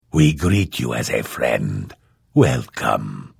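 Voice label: Hello, Vo; The Elder Scrolls III - Morrowind; Morrowind GOTY GOG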